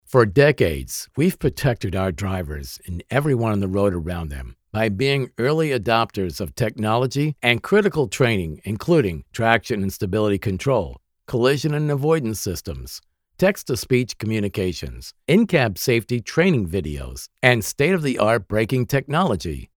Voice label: EDUCATIONAL; TRUCK DRIVERS